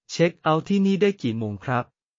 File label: Thai, basic thai phrases